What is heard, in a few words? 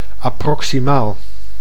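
"approximaal", Dutch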